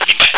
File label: "roger", radio